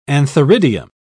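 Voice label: "antheridium", British English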